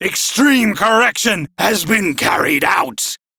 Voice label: Crew Enemy Defeat, Male; Warframe; Railjack Crew; Arbiters Hexis